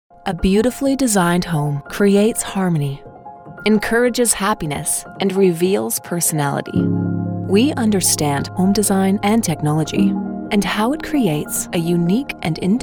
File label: Narration; TaDa